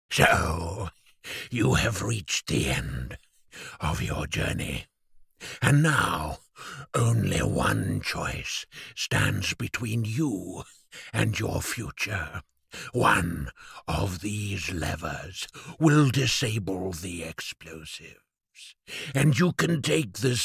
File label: oyun, game dubbing male voices international accent